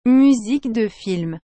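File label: "musique de film", French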